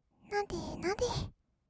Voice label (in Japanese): "なでなで", kawaii voice; ASMR; 色々なワンショット